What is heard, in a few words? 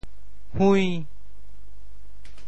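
"hui~5", Teochew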